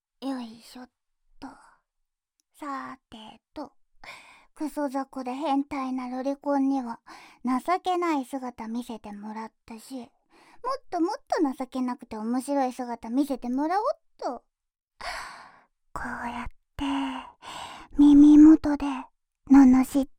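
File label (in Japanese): 耳元で罵られながら耳舐め＆フェラチオ（左右）; 口内射精; NoSFX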